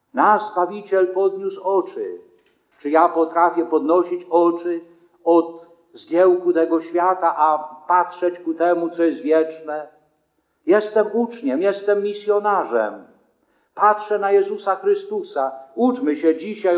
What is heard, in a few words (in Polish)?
Kazanie; Wielki Czwartek; pamiatka ustanowienia eucharystii i kaplanstwa